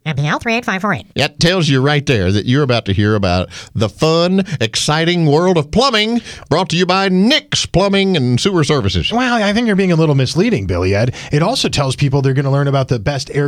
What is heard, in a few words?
RADIO AD; nicks plumbing radio ads